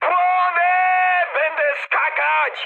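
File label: Polish on fire mood high; War Thunder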